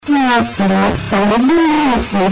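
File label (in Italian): "c'è Aldilà", Italian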